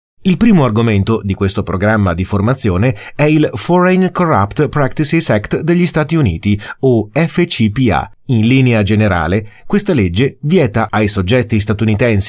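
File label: Italian, male, elearning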